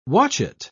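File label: "watchet", British English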